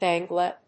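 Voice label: "Bangla", English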